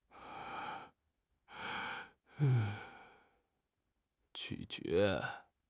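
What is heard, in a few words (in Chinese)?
"咀嚼", Chinese; HSK6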